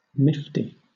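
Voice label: "nifty", British English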